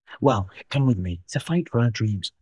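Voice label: Voice Acting es M, Spanish, male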